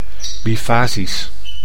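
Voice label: "bifasisch", Dutch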